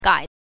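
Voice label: "gai", Mandarin Chinese, neutral tone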